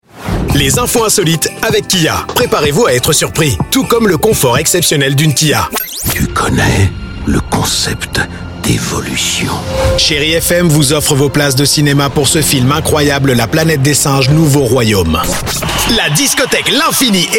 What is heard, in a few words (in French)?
Reel Commercial